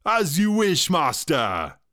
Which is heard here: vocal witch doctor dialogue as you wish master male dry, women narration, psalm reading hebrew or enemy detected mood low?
vocal witch doctor dialogue as you wish master male dry